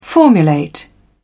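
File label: "formulate", English, British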